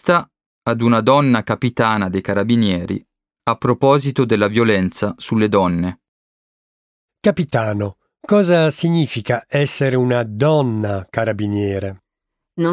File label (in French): Italien